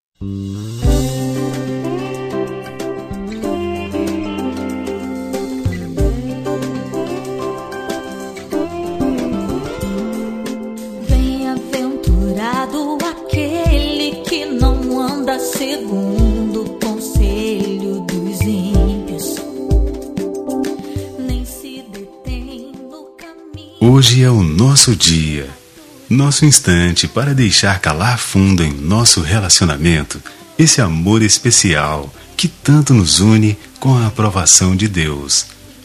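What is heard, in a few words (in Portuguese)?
Namorados pra Evangelica, male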